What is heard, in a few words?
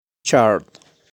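"Chard", pronunciation; Vegetales; vegetables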